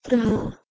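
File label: "trh2", Proto-Indo-European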